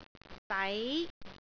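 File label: "dai2", Cantonese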